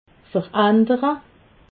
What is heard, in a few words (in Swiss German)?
"verandere", alsace